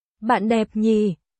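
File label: Vietnamese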